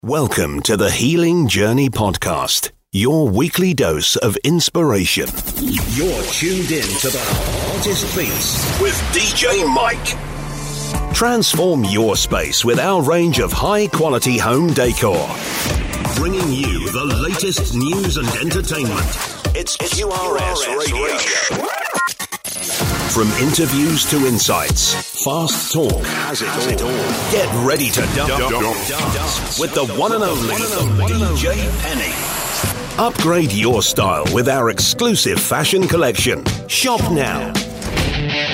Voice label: youth imaging, voiceover